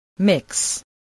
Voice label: "mix", voice, spelling